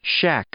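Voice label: "shack", English, male; noun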